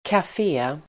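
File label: "café", Swedish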